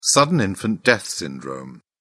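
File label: "sudden infant death syndrome", British English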